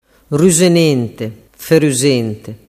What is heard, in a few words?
"rusenenteferusente", dialettu